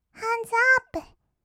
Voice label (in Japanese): "ハンズアップ", kawaii voice; ASMR; 1200 kawaii voice!!; 音ゲー